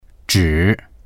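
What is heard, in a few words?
"zhi3", Mandarin Chinese; 只; ただ…だけ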